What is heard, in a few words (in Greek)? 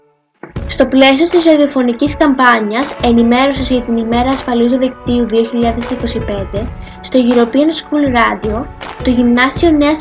σποτ